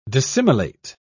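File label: "dissimilate", British English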